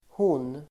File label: "hon", Swedish